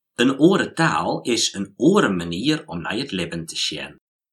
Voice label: west frisian